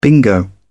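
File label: "bingo", English, uk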